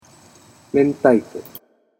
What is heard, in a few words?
"mentaiko", Japanese; utterance